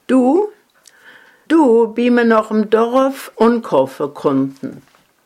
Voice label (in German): "duu" im Satz; heimatfreunde weibern